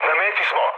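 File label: Serbian near waypoint mood low; War Thunder; speech srb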